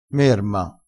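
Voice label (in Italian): "merma", dialettale crespinese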